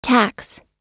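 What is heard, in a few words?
"tax", English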